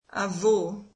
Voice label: "grandfather", brazilian